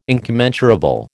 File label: "incommensurable", American